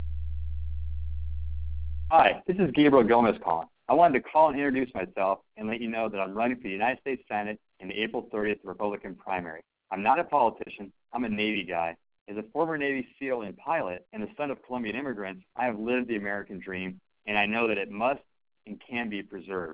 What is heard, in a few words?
voice message